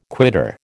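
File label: "quitter", American English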